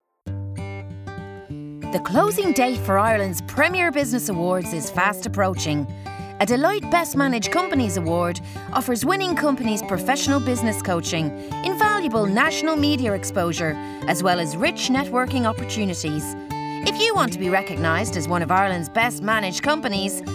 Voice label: Irish, Female voices, Lovely warm sexy laid back style. Smooth as silk.